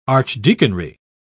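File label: "archdeaconry", British English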